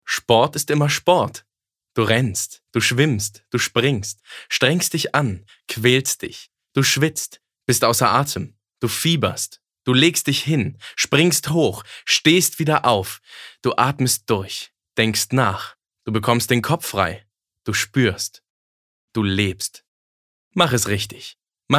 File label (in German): Dynamisch; Move